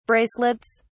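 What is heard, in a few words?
"bracelets", English, British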